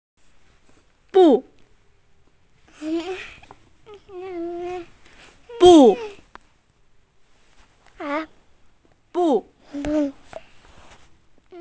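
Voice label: "bu4", Mandarin Chinese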